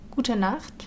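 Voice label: "Gute Nacht", German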